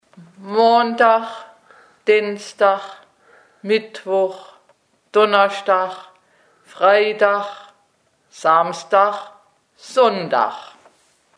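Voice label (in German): wochentage, Hunsrück; Laufersweiler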